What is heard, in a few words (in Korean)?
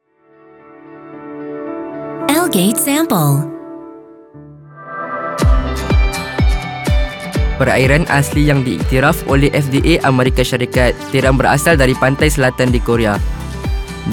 말레이 남 밝은톤